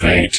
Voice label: "paint", computer voice

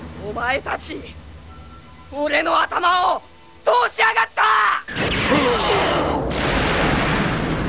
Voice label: what did you do, japanese

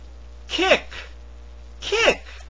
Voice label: "kick", pronounce it

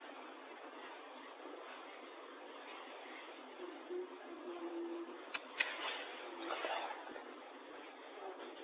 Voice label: womans voice then whisper

